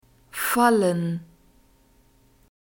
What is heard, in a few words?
"fallen", German; verbs present tense irregular